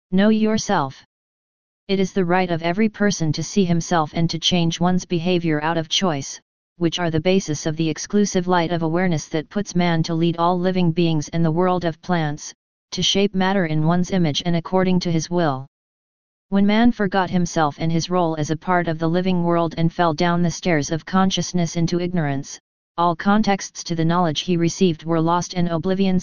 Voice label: Female voice